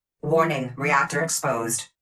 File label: warning reactor exposed, Tone Voice; Titanfall 2